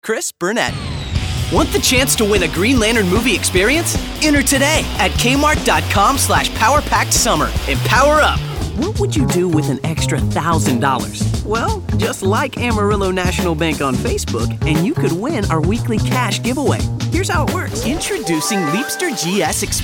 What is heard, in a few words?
Young Adult